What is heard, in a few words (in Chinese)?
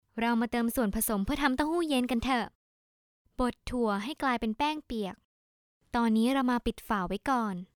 泰语女-干音-甜美